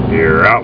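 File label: "out", spoken; iNNiNG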